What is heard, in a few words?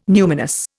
"numinous", Indian